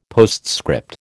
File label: "postscript", American